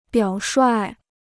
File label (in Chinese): "表率", Chinese